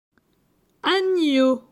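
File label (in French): "Agneau", French; phonetique; la graphie gn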